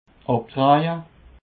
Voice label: "abdreje", Alsace

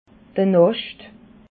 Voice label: "nascht", alsace

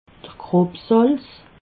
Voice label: "grobsalz", alsace

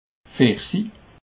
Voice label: "versi", alsace

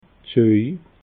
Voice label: "soj", alsace

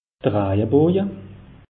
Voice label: "rajaboja", alsace; meteo

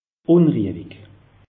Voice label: "unriewig", alsace; meteo

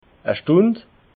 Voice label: "stund", alsace

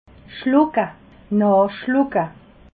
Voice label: "schlucke", alsace

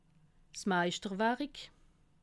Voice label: "meischterwarik", alsace

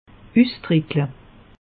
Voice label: "usdreckle", alsace; meteo

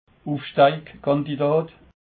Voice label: "ufstiegskandidat", alsace

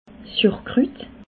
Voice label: "surkrut", Alsace

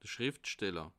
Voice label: "schriftsteller", alsace

